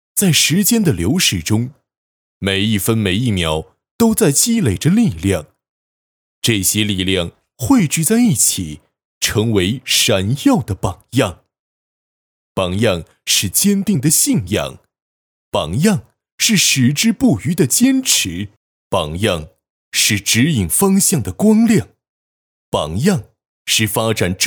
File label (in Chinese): 男, 广告; 在时间的流逝中